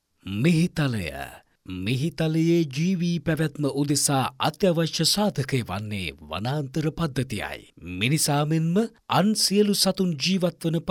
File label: MALE NARRATION